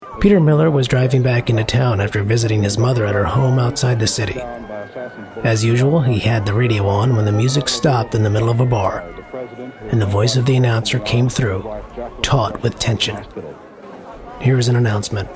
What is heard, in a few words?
Narration, voiceover